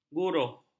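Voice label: "guruh", Malay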